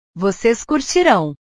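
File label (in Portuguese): "vocês curtirão", Portuguese; portugues/verbos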